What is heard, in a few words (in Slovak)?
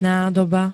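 "nádoba", Slovak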